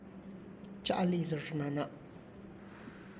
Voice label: I am going to my mother's house, Tlacochahuaya Zapotec; txa' liz xhana; (Spanish) voy a la casa de mi mam